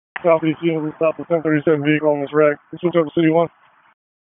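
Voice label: Raleigh Police Southeast District Dispatch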